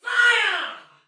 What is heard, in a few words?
Shoot Arrow Soldier Female, Battle; Dialog; Sinecure